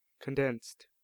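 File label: "condensed", American English; pronunciations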